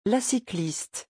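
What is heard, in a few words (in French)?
"la cycliste", French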